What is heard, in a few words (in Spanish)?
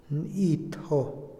madrugada, Otomí, hombre; INALI